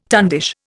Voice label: "tundish", Indian

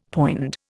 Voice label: "poind", Indian; Dictionary

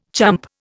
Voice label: "chump", Indian

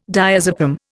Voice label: "diazepam", Indian

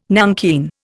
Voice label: "nankeen", Indian

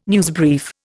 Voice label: "newsbrief", Indian

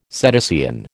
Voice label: "cetacean", American

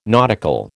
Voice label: "nautical", American

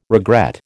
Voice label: "regret", American

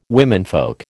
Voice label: "womenfolk", American

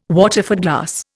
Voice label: "Waterford glass", Indian

